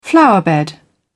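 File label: "flower bed", British English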